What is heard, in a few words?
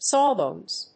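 "sawbones", English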